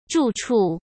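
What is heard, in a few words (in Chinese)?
"住处", Mandarin Chinese; hsk; chinese-flashcards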